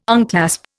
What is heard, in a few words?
"unclasp", Indian